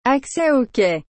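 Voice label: "acces aux quais", French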